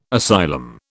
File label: "asylum", British